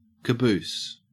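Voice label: "caboose", Australian English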